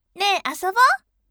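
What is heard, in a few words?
voice ne asobo bright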